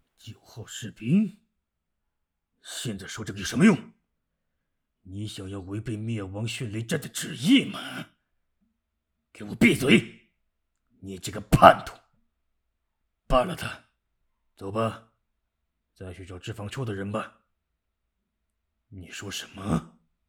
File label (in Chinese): 粗鲁的声线